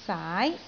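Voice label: "saai2", Cantonese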